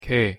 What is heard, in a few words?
"게", Korean